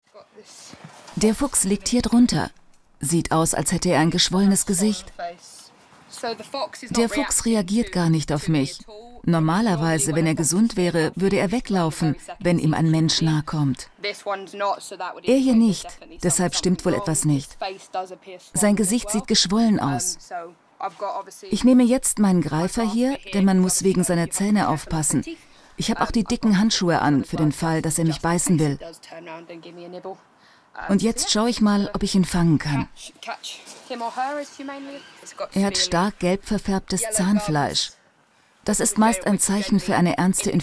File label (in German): VoiceOver gefuehlvoll; Fuer alle Felle; Arte